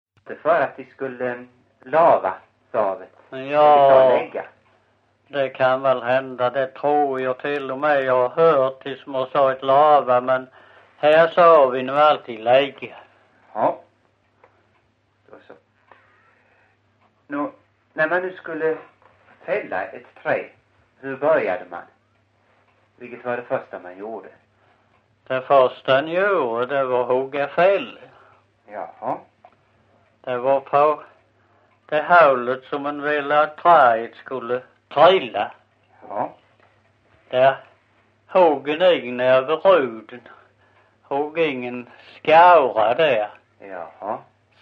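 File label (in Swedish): Visseltofta Skåne, Svenska sagor dialekter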